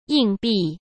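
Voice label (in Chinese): "硬币", Mandarin Chinese; hsk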